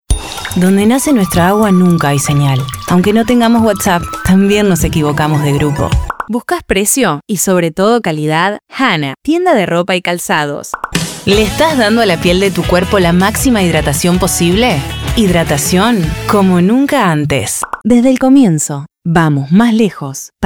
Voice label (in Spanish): rioplatense